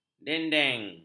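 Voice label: "dendeng", Malay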